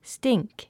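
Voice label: "stink", English word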